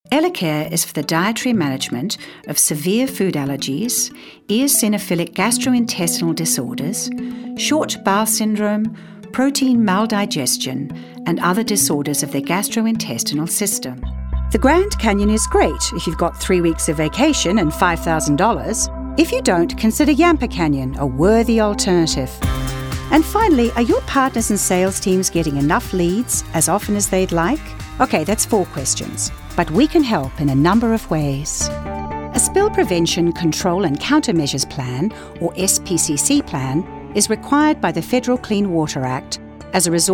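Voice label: narration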